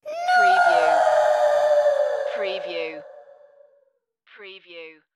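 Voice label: Ghost Female No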